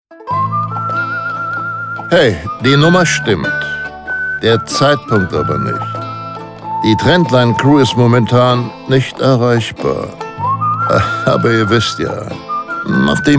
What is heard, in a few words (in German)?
Ansage